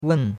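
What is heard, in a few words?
"wen4", Mandarin Chinese; Cours-de-Chinois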